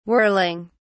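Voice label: "whirling", British English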